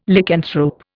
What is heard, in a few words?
"Lycanthrope", Indian